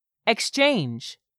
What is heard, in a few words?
"exchange", English; 3000 words